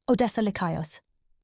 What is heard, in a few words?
OL Pronunciation